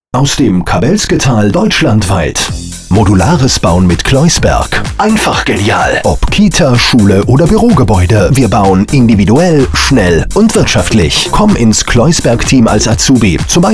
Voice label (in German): klassischer Spot; Kleusberg